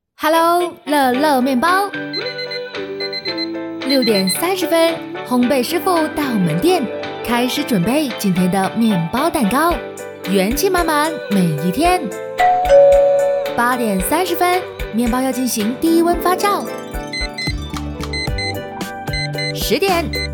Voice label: 女 普通话 面包闹钟 BGM

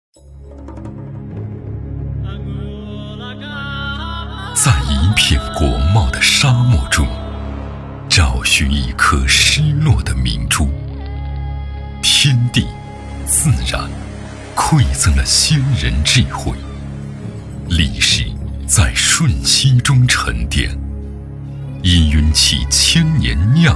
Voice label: 男 纪录 人文 片 历史人文; 中国楼兰

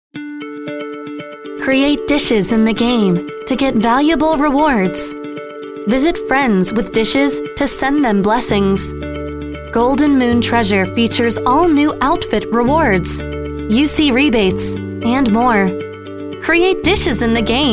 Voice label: 外籍英女 宣传片 年轻科技

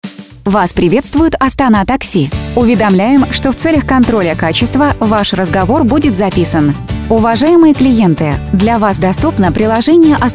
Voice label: 俄语女 IVR

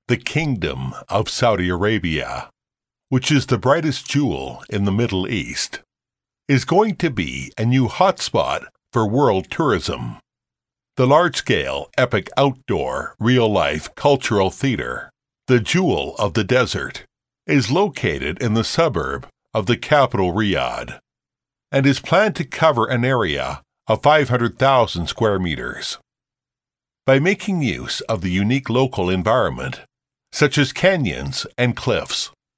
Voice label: 宣传片配音; 沙漠明珠; 翻译

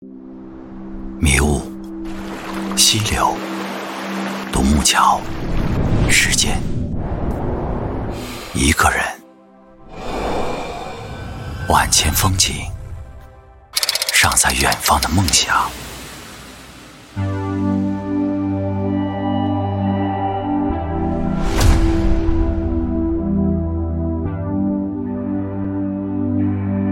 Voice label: 男 宣传片 汽车宣传片 质感

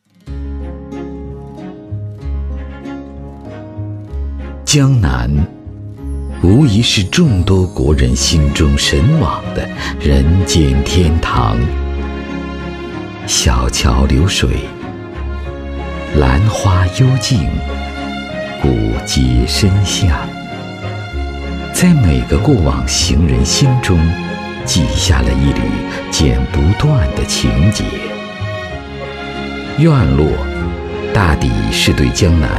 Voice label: 男 广告; 玖崇湖

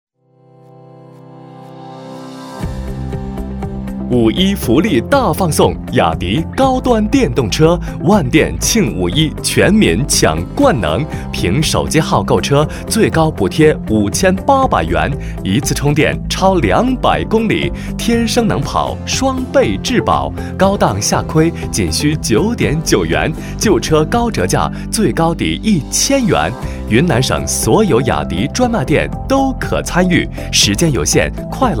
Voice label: 男 中文 广告; 雅迪

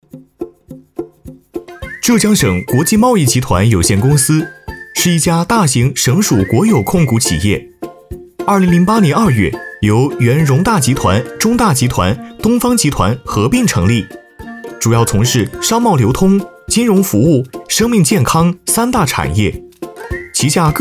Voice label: 男 年轻活力 公司介绍; 飞碟说MG

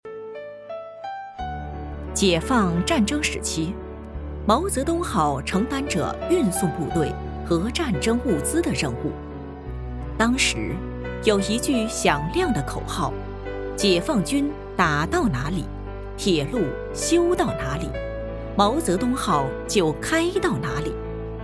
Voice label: 记录片; 毛泽东

